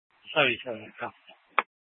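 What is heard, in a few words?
Mesa Police Department Superstition Dispatch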